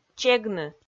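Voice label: "чегны", Komi, female; kpv audio dict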